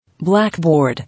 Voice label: "Blackboard", English